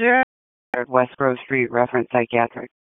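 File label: Police Southwest District Dispatch, p25; Raleigh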